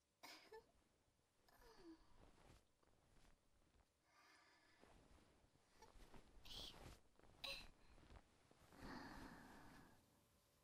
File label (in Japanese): 密着ささやき誘惑, ASMR